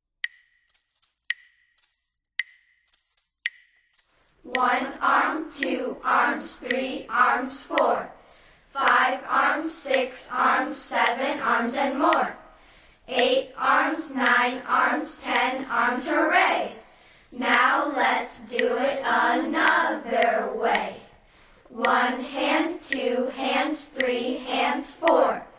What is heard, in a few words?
Counting Chant; escolas bilingues